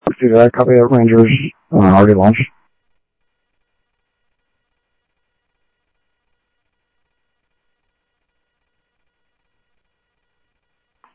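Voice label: Sheriff East County, scanner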